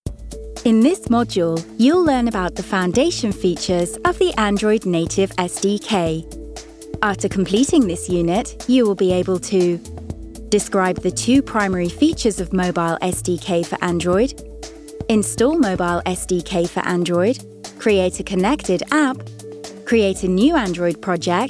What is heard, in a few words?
elearning